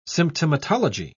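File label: "symptomatology", British English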